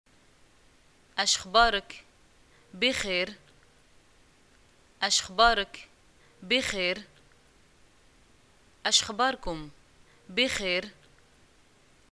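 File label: greeting how are you, Moroccan arabic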